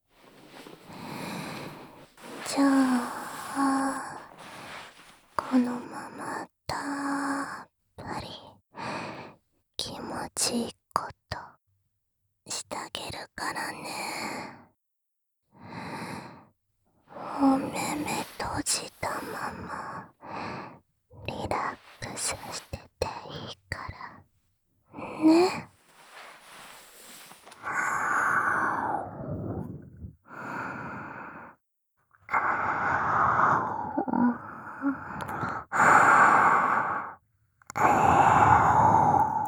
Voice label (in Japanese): 添い寝 手コキ カウントダウン 射精 お掃除フェラ 囁き 射精音あり; 添い寝+まっ～～～ったり手コキ、カウントダウン射精＆お掃除フェラ; プレミアム猫ver 囁きブースト; あまあまデレデレダウナー猫娘; フォーリープレミアムにゃんこサウンド; KU100収録